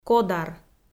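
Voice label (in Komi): "кодар", Komi, female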